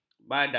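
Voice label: "badam", Malay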